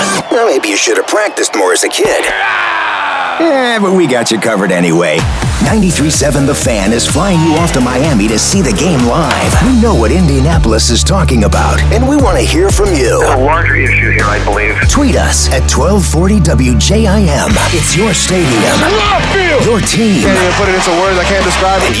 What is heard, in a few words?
News Sports